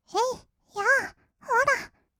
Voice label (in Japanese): えい やー ほら, kawaii voice; ASMR; 色々なワンショット